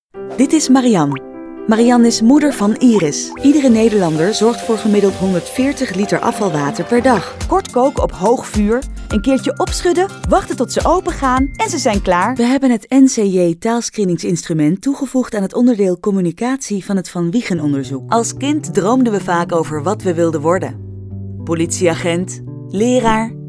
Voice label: algemeen VO

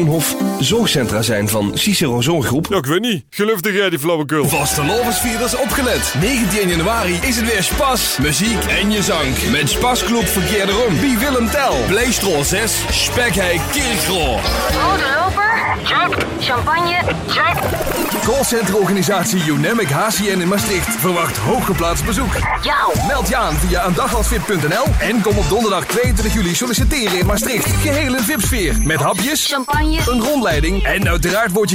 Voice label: Stemdemo zachte G Brabants Limburgs incl dialecten

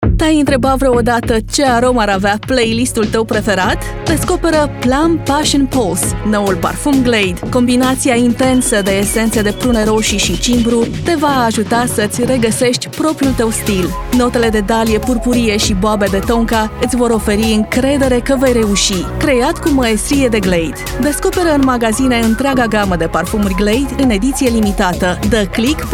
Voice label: Roemeens Vrouw

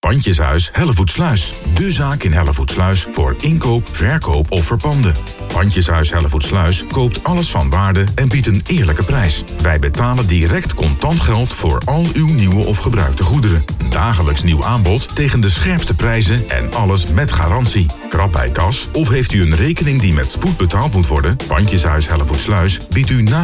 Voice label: radiocommercial lokaal

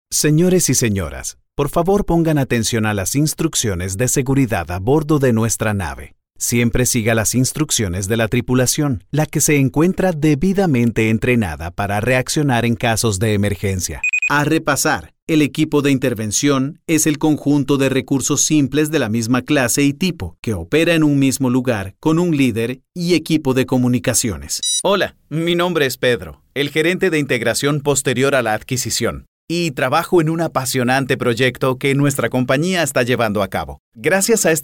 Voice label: Spaans Man

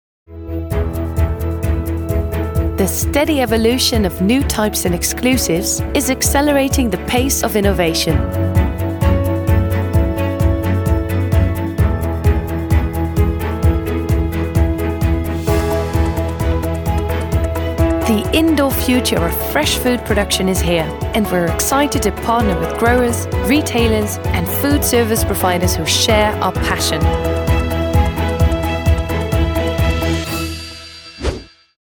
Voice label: Zakelijk commercieel warm rustig Brits Engels

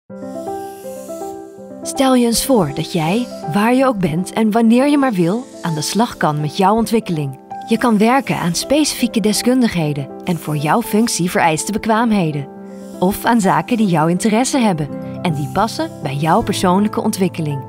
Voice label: vo neutraal corporate

